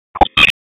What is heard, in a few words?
Police Downtown District Tac 1, p25; Raleigh; wake